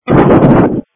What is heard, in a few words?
Sheriff Dispatch